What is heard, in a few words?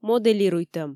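"моделируйтӧм", Komi, female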